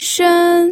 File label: "shen1", pinyin